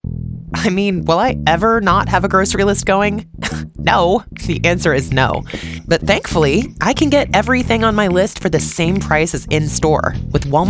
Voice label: english american commercial, storyteller voice actors